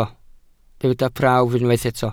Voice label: "prawf", Welsh